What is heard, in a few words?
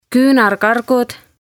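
"Kuunarkargud" Estonian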